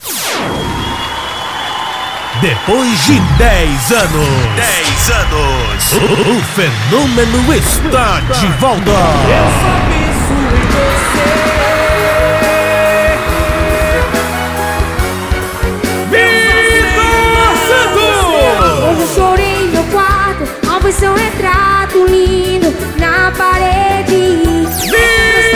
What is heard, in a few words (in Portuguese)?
locuções